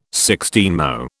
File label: "sixteenmo", British